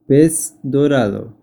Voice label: Goldfish spanish

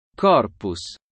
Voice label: latin

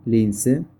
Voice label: Lynx spanish

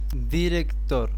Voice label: Director spanish

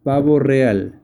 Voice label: Peacock spanish

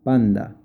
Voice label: Panda spanish

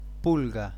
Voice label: Flea spanish

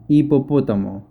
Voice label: Hippopotamus spanish

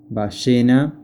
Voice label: Whale spanish

